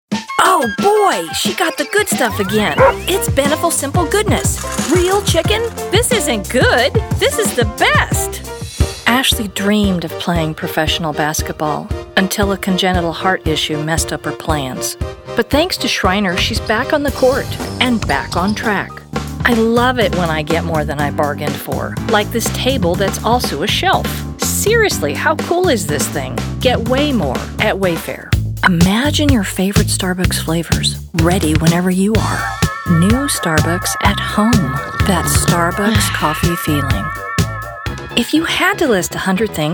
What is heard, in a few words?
Commercial